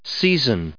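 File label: "season", English, us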